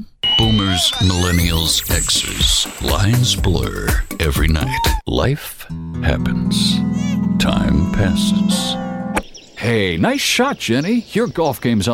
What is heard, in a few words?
Commercial Demo